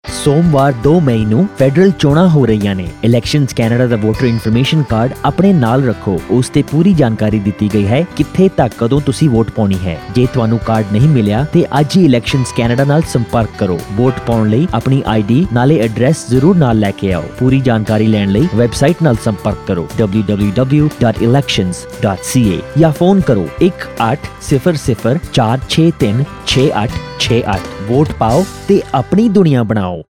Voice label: punjabi